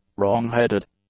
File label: "wrong-headed", english; adjectives